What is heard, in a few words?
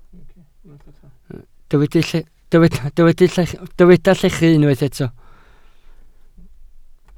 "llechu", Welsh